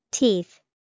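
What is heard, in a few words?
"teeth", English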